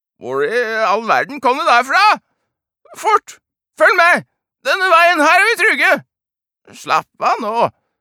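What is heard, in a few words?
Norwegian dub, mann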